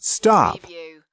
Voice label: "stop", US male; lynne publishing

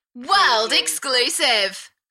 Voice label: UK female, World exclusive, Enthusiastic; beanstalk audio

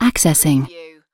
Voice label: "accessing", UK female; lynne publishing

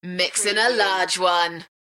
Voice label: UK female; Mixin a large one; beanstalk audio

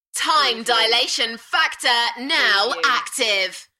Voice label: UK female, Enthusiastic; beanstalk-audio

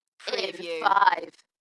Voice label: UK female In five Dalekness; beanstalk audio

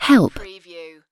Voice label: UK female help; lynne publishing

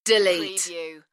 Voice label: UK female "Delete"; beanstalk audio

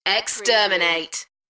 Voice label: UK female; Exterminate; beanstalk audio

